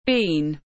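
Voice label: "bean", English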